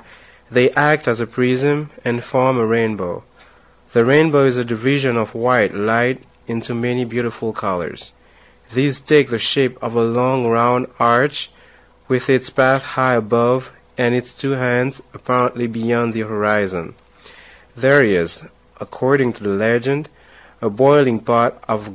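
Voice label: haiti, International Dialects of English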